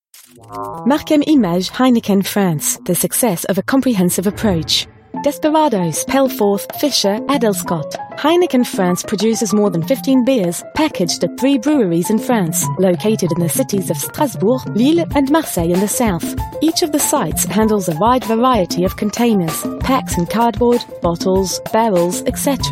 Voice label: Institutionnel; Heineken

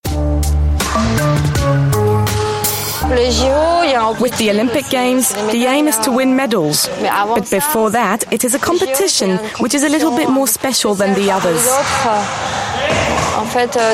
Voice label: Reportage TV Voice over

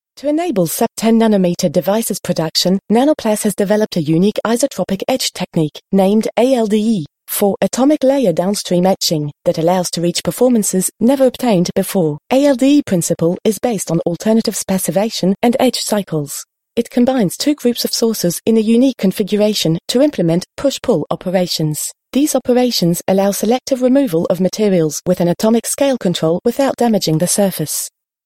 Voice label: Explainer Technique

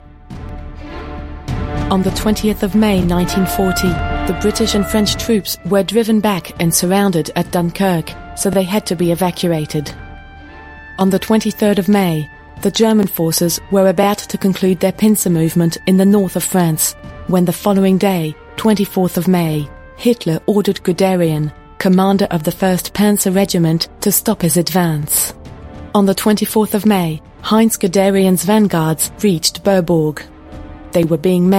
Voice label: Documentaire

